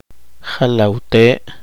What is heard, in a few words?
poqomchi; especie de palma